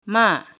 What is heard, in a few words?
scold